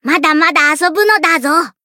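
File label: win, Japanese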